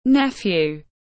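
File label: "Nephew", English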